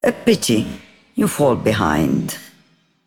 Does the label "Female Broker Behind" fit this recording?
Yes